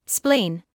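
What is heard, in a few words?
"spleen", British English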